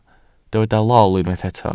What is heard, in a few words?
"lol", Welsh